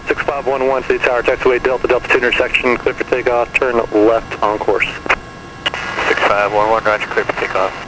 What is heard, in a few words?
ATC Heli Clear Take Off; Transport-AirMarine; PFx Brick